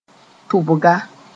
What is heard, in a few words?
"tʋbga", moore; dart